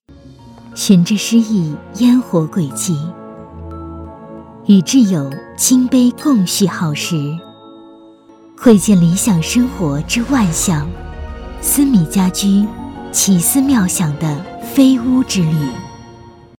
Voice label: 女 广告 温柔 家居; 司米家居

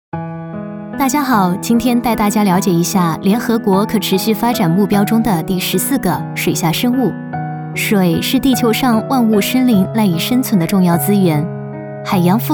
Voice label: 女 解说 自然 海洋健康

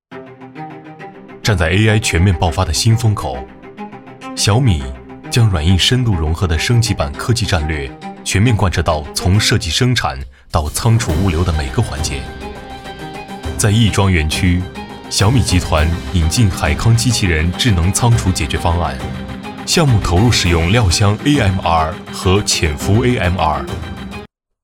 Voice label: 男, 企业宣传; 小米

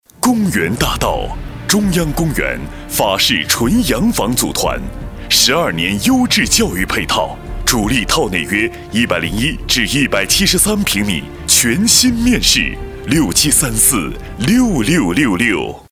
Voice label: 男 房产 浑厚; 东原

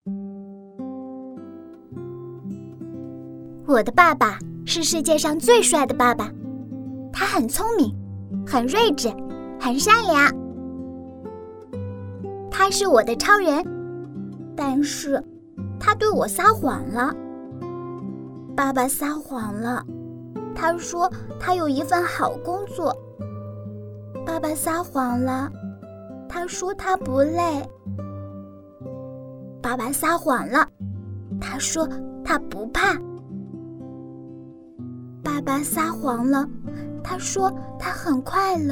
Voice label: 女 女童声 走心旁白 我的爸爸

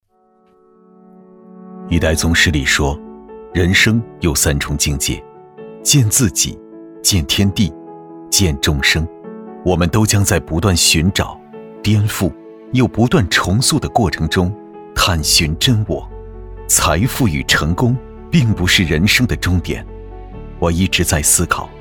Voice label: 男 内心独白

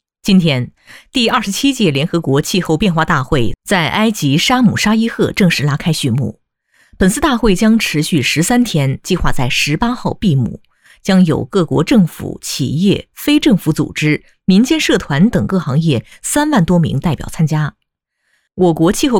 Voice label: 女 新闻播报 党政 气候变化大会

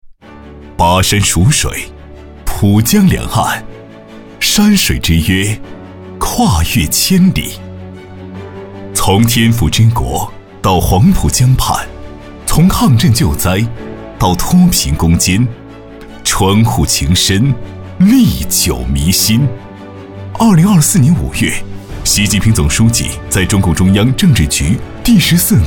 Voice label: 男 党政宣传 积极奋进; 川沪合作

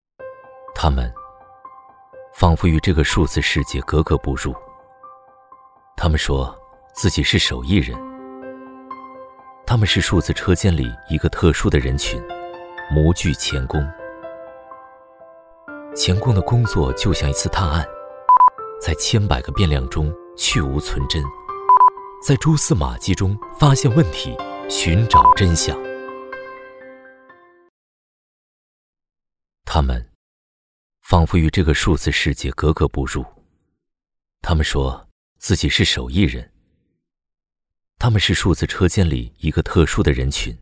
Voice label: 男 独白 深情; 模具钳工

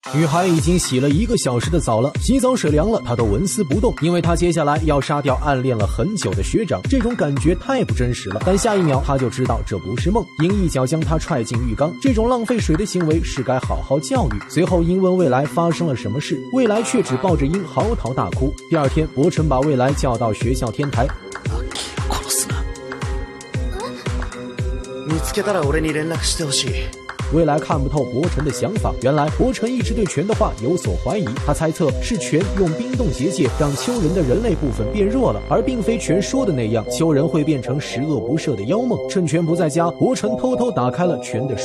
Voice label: 男 解说 动漫; 抖音解说; 境界的彼方

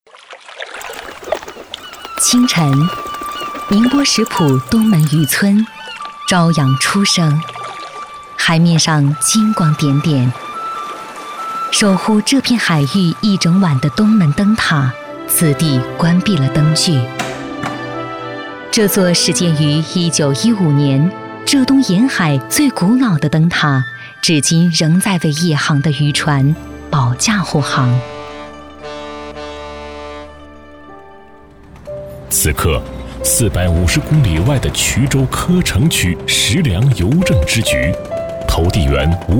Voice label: 女 电台

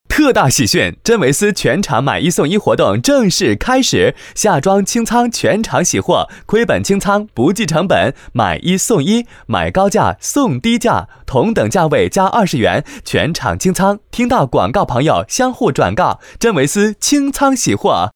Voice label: 男 中文 广告类 促销; 真维斯

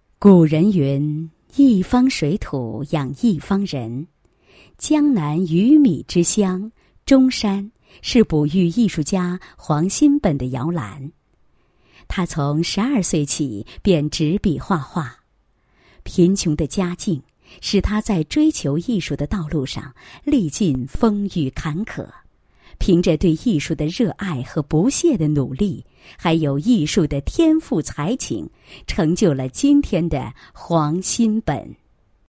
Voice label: 女 人物介绍, 配音; 青墨素韵 艺术家黄某介绍

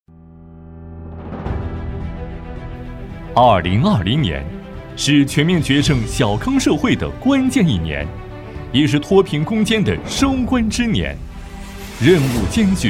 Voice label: 男 汇报 大气正式; 全面小康

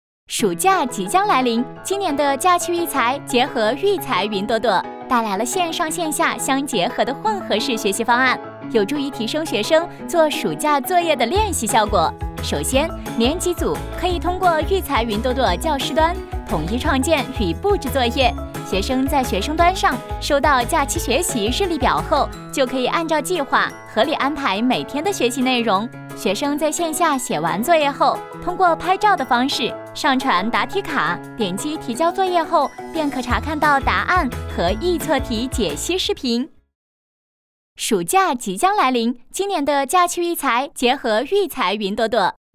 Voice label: 女 MG APP操作说明

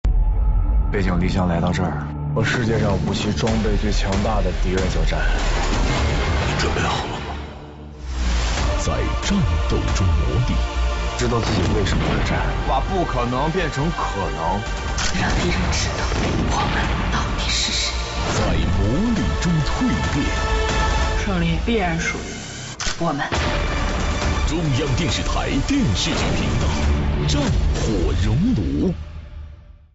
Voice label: 男, 纪录片; CCTV8-战火熔炉

